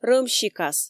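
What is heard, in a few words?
Komi, female; рӧм сикас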